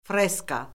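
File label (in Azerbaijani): "freska", Azerbaijani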